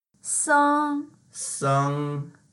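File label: "seng1", Mandarin Chinese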